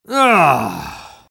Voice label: spy negative vocalization; tf2